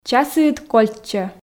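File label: часіыд кольччӧ, Komi, female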